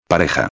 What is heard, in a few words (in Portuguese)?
"pareja", espanhol